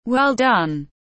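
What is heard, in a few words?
"well done", English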